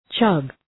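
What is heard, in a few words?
"chug", English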